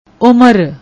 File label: "ummar", Punjabi; ਉਮਰ